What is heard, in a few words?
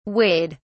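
"weighed", British English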